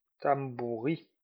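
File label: "tamborin", Occitan Limousin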